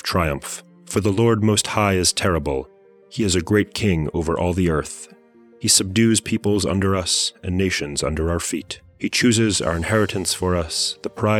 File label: poetry and prayer psalm english